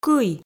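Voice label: "кый", Komi, female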